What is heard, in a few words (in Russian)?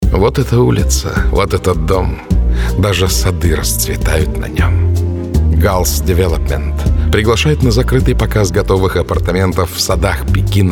Аудиореклама; диктор; Аудиореклама для ГАЛС-Девелопмент; Звуки Москвы